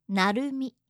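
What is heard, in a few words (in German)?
"narumi", japanische Mädchennamen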